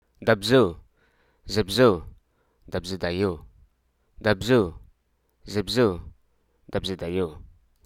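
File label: Hruso Aka; condemn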